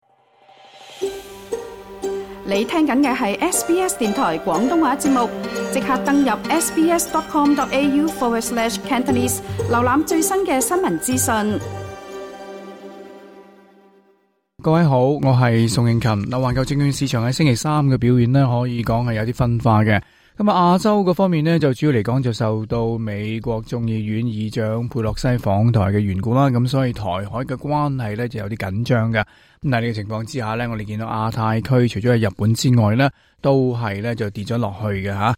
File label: cantonese; 地緣政治憂慮暫時放緩 市場氣氛轉好; 美國衆議院議長佩洛西訪台; SBS Chinese